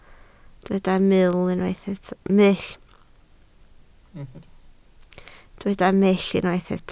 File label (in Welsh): "mull" Welsh